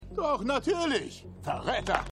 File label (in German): Mann, Stimmproben